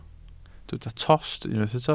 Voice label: "tost", Welsh